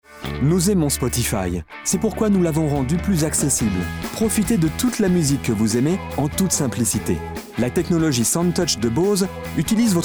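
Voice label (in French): French, male, commercial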